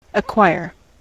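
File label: "acquire", US English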